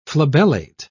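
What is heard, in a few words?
"flabellate", British English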